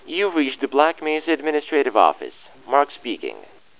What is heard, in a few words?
hello, phone; xash3d fwgs; induction